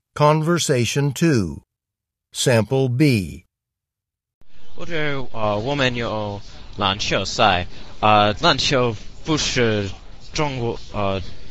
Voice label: Chinese convo; Conversation 2 Sample B (Score of 4)